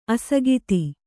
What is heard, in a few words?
"ಅಸಕ್ತ", kannada